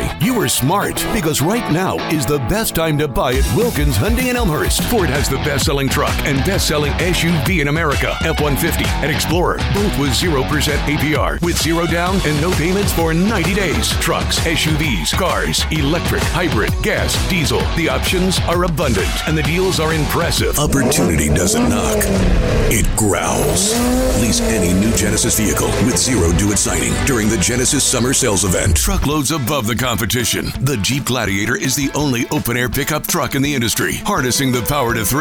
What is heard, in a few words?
Auto Dealer Male